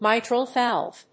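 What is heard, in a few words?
"mitral valve", English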